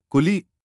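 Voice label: "क़ुली", Hindi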